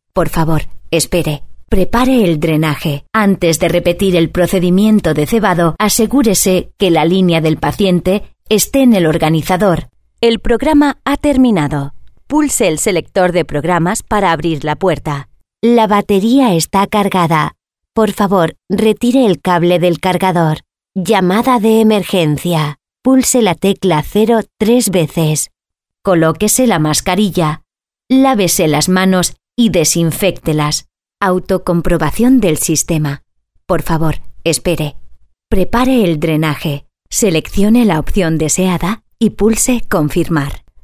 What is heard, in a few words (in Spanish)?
ivr maquina medica